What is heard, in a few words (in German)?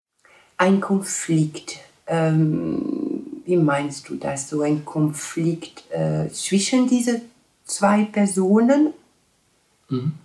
franzoesisch